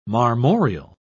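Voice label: "marmoreal", British English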